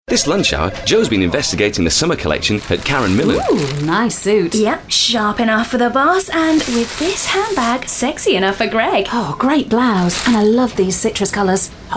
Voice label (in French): radio pub spot